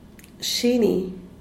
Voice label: "shini", Diaz Ordaz; que

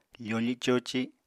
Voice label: "fofi-coci", Apatani

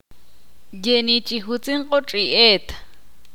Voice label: ixil; ayer los perros no estaban corriendo

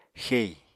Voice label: "xei", Apatani

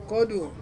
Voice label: "kodu", Ansus; two

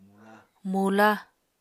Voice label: "mula", Apatani

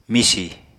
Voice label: "misi", Apatani

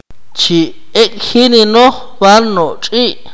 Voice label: the dogs are running, akateko

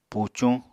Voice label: "pucuñ", apatani

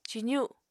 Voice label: "cizu", Apatani

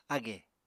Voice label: "ágè", Apatani

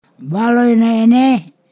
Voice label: "whose house", Ponosakan

